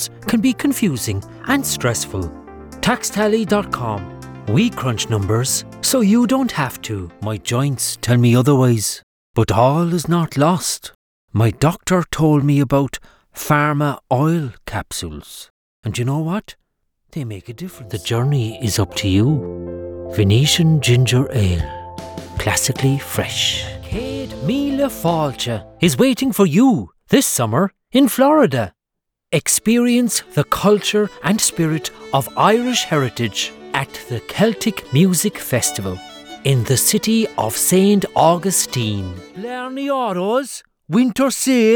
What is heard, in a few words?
Commercial Reel